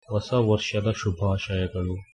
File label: kannada